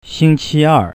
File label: "xingqier", Chinese; Chinese101; Lesson5